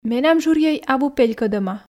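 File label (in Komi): менам жырйӧй абу пелькӧдӧма, Komi, female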